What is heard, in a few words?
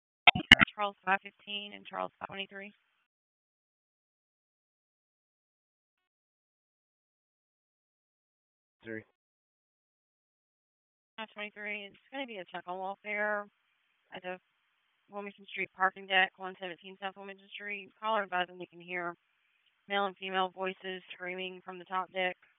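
Raleigh Police Southeast District Dispatch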